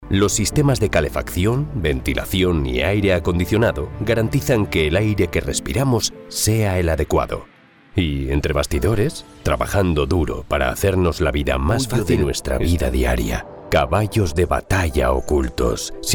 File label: spanish narration; professional voice actor from Spain